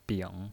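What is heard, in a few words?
"bing", Mandarin Chinese, third tone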